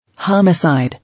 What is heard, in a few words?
"Homicide", English